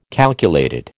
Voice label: "calculated", American

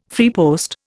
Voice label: "freepost", Indian

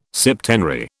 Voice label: "septenary", British

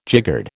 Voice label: "jiggered", American

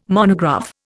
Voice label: "monograph", Indian

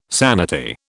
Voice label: "sanity", British

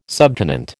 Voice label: "subtenant", American

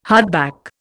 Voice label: "hardback", Indian

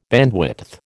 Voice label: "bandwidth", American

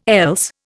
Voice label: "else", Indian

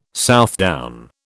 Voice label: "Southdown", British

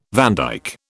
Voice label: "vandyke", British

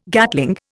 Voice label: "Gatling", Indian

